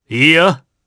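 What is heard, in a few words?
Deny, Japanese; voices; heroes; Roman; kingsraid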